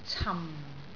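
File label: "cam4", Cantonese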